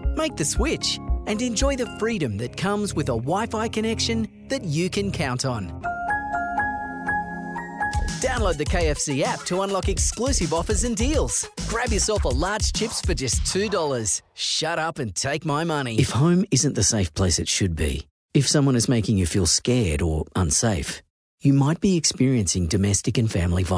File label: Voiceover Demo